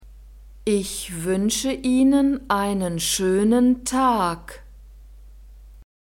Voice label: "ich wuensche ihnen einen schoenen tag", German